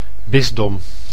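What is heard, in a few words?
"bisdom", Dutch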